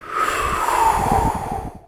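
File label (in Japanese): "ひゅ", Japanese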